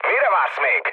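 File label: attack position, mood low, Hungarian; War Thunder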